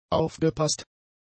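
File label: "aufgepaßt", German; adjectives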